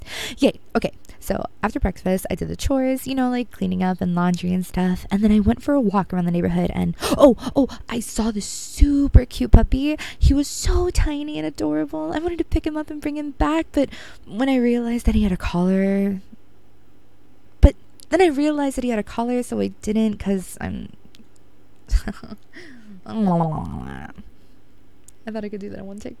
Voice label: Airhead Girlfriend Bloops

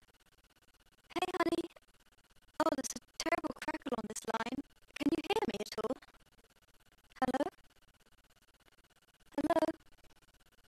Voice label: Phone message

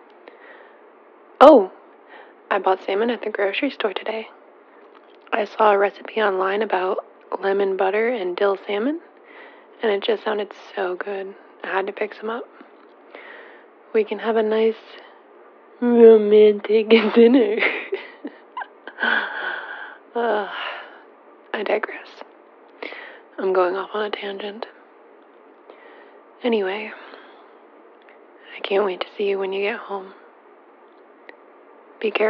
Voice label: F4A, Leaving You A Voicemail At Work; The GFE

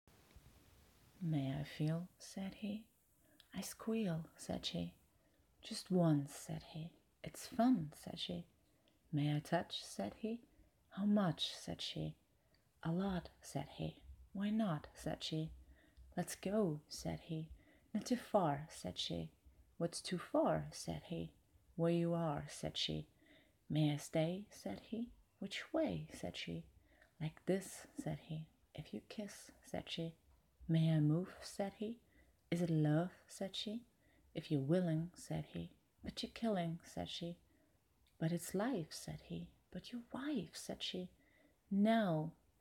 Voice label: Poetry, Reading, Accent; May I Feel Said He; e.e. Cummings; Very Short

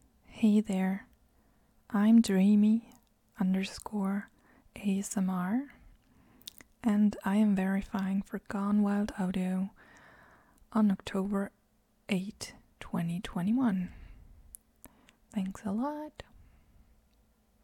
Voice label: [Female] Verification

